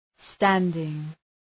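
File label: "standing", English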